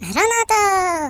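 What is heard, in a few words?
"Grenade", British English; Default uk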